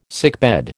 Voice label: "sickbed", American

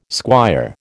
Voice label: "squire", American

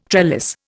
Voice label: "trellis", Indian

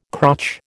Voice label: "crotch", American

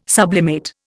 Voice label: sublimate, Indian

